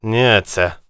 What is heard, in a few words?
warrior conversation emotion neutral